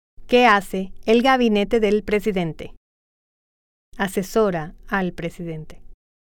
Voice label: Spanish